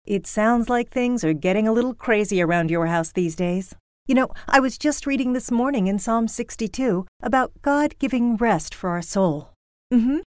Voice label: Radio Spots; Family Life